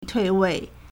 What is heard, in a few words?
"tui4wei4", Chinese